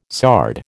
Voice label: "sard", American

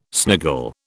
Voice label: "sniggle", British

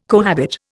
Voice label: "cohabit", Indian

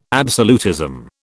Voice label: "absolutism", British

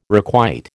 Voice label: "requite", American

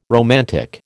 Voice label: "romantic", American

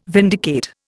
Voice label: "vindicate", Indian

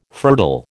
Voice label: "fertile", American

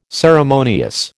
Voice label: "ceremonious", American

